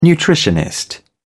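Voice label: "nutritionist", English, British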